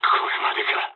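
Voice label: aircraft destroyed mood med, speech japanese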